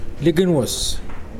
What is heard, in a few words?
Mokilese ocean area outside and approximate to the barrier reef